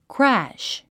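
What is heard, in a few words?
"crash", English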